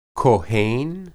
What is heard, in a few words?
"kohen", Hebrew